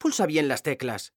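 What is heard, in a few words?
"animo", Spanish; mekanta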